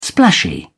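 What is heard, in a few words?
"splashy", British English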